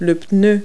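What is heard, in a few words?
"tire", English word; Multi-Lingual Talking Picture Dictionary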